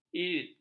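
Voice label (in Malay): "irit", Malay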